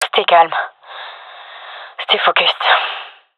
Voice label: scared, gendarmerie fem; vo; agents